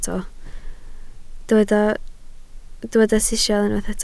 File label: "sisial", Welsh